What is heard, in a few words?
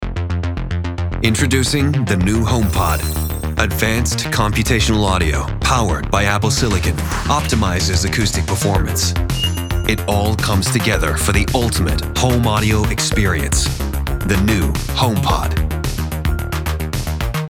US ACCENT; Homepod